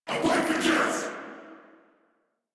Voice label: Attack Sniper, en; Warframe; Corpus Amalgam Sniper

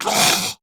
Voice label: Pain Grineer Ghoul Sarge, en; Grineer Ghoul Drill Sargeant; Battle Barks

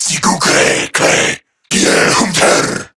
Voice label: Attack, Male

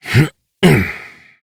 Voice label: Horse Dismount Adult; Duviri

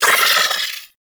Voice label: Vocal Pain; Warframe; Sentients; Battalyst

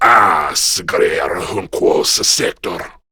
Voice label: Patrol, Male

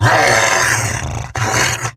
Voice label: Attack Grineer Wolf, en; Battle Barks

